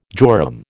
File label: "jorum", American